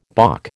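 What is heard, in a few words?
"balk", American English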